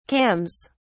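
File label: "cams", British English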